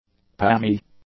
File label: "palmy", English; adjectives